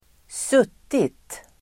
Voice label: "suttit", Swedish